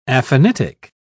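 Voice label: "aphanitic", British English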